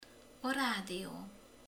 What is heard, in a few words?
"rádió", romani